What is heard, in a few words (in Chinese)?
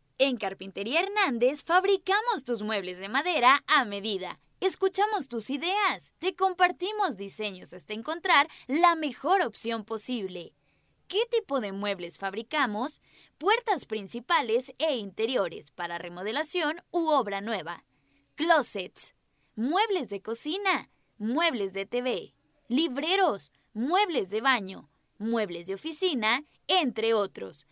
西班牙语女 外语 小语种